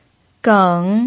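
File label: "geng", Mandarin Chinese, third tone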